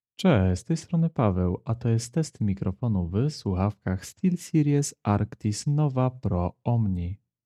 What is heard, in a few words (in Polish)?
test mikrofonu deep cicho, steelseries arctis nova pro omni; test recenzja